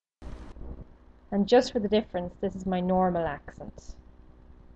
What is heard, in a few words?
typical accent